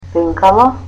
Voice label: "pen", Macedonian